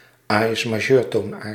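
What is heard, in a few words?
"Aïs-majeurtoonaard", Dutch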